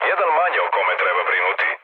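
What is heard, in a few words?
Serbian player killed enemy mood low; War Thunder; speech srb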